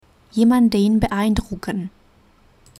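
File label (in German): "beeindrucken", German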